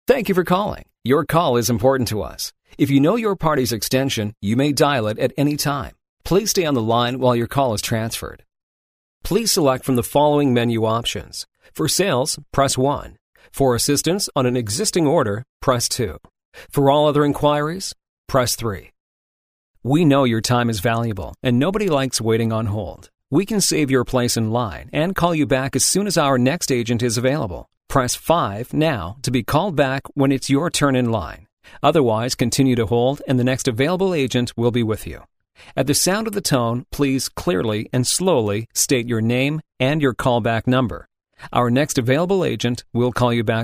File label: Automated Phone Answering